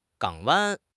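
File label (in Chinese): "港湾", Chinese; HSK6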